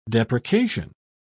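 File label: "deprecation", English, British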